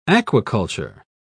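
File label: "aquiculture", British English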